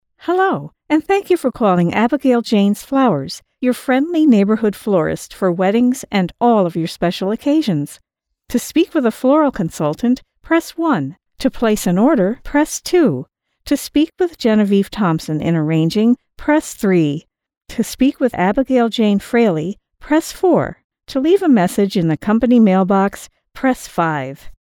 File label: IVR Phone Friendly